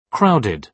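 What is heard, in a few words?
"crowded", British English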